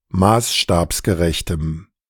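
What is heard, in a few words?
"maßstabsgerechtem", German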